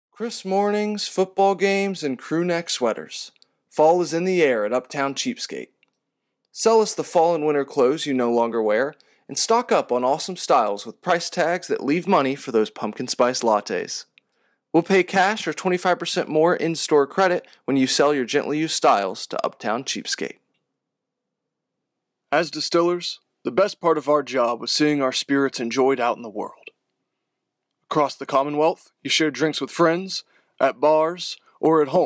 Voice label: commercial VO

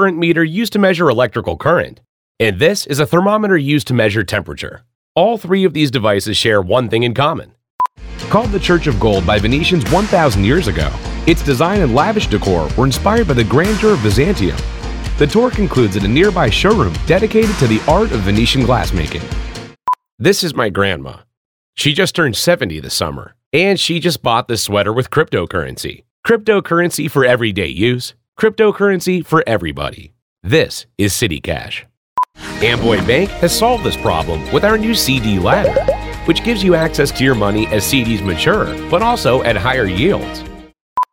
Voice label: Narrative

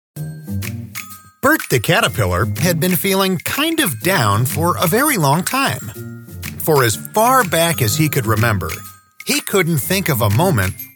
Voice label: Childrens Book Narration

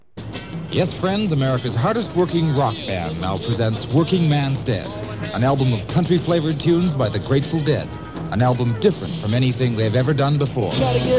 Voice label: radio promo

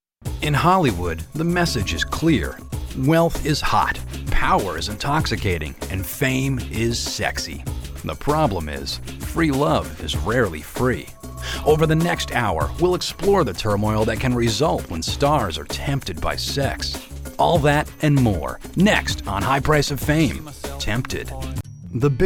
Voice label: Narration

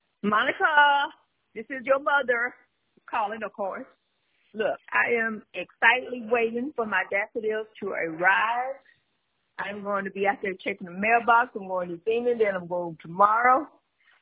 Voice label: voicemail

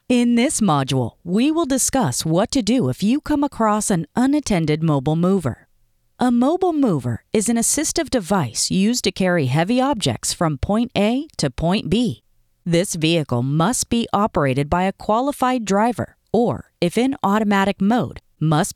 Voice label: elearning

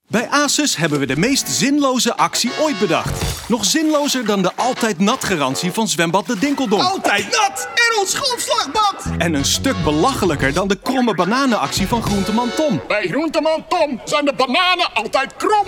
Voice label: commercial; asus

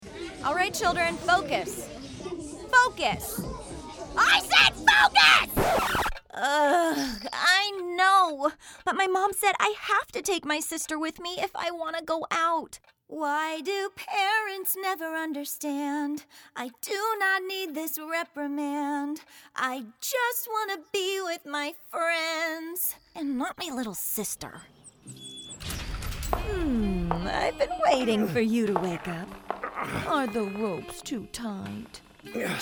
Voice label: Animation

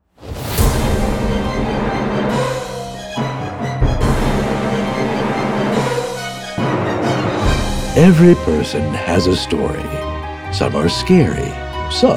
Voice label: VO and Music

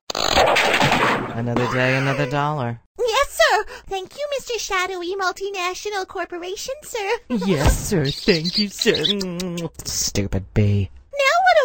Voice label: ANIMATION

